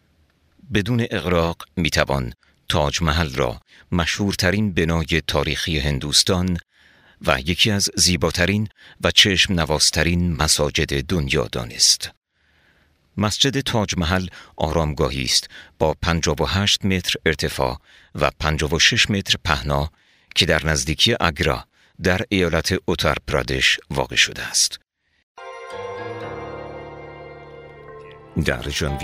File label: farsi m narration; voice demos